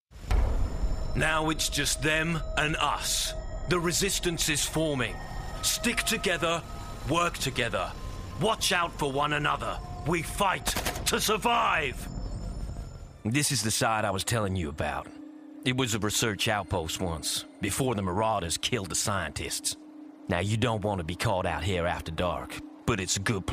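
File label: English UK, Gaming, voice demos; GoLocalise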